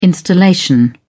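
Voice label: "installation", British English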